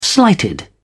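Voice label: "slighted", British English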